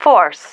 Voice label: "force", female